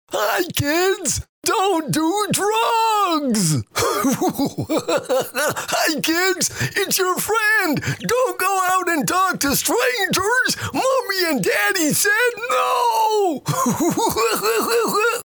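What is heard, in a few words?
Canadian, male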